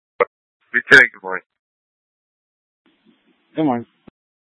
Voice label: Police Dispatch